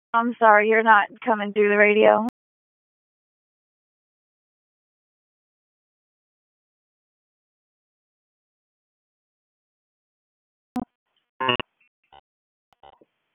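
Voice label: Police Southwest District Dispatch, p25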